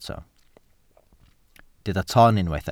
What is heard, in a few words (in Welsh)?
"ton", Welsh